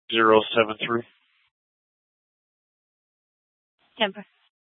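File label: Scottsdale Police South Dispatch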